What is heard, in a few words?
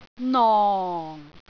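"nong4", Cantonese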